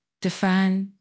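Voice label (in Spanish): Voice Acting es F, Spanish, female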